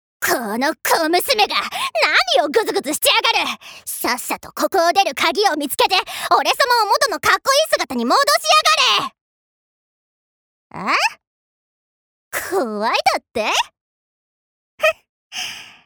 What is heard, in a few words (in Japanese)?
アニメ